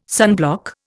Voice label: "sunblock", Indian

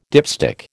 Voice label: "dipstick", American

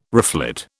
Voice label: "reflet", British

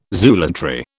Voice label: "zoolatry", British

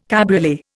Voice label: "cabriolet", Indian

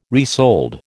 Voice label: "resold", American

